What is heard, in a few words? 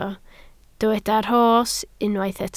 "rhos", Welsh